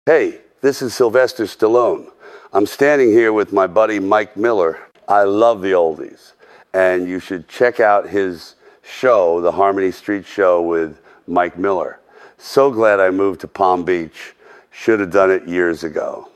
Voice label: promo